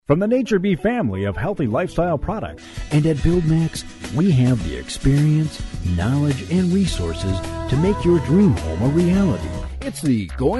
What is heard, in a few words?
male US retail